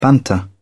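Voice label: "banter", British English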